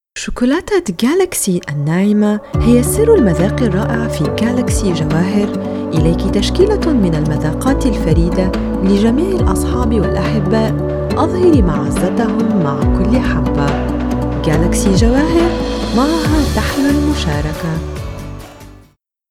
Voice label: Arabic, Female

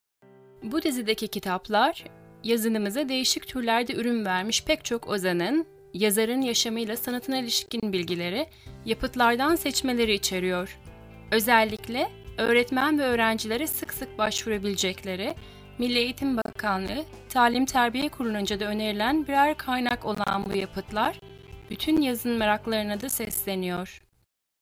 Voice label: Female, Turkish; TR

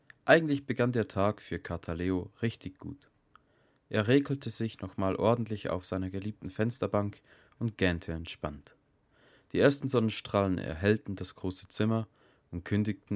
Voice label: German, Male

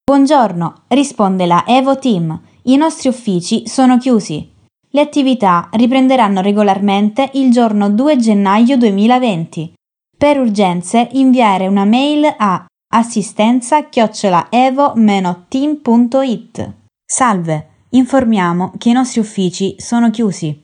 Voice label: Italian (Swiz), Female

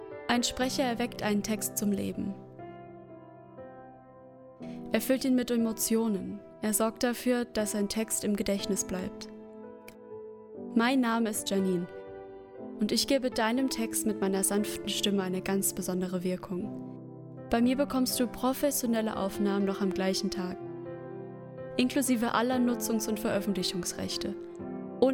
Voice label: German, Female

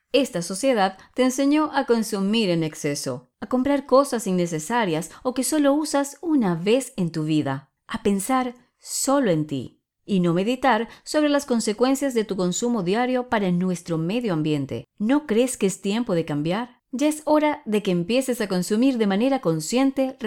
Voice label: Female, Spanish (LA), Venezuela

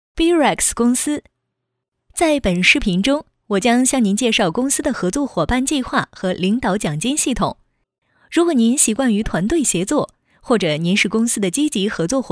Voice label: Chinese Female

